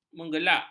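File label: "menggelegak", Malay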